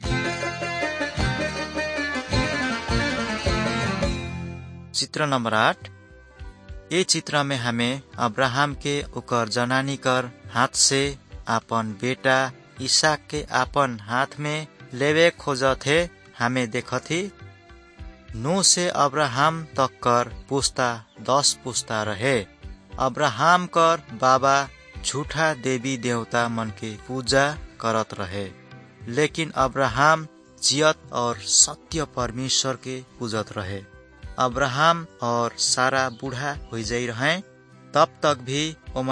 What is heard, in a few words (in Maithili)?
good news maithili, nt drama